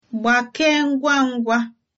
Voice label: get well soon, igbo

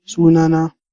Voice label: my name is, hausa

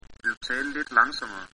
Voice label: slowly, Danish

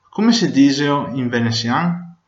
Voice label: "how to say", Venetian

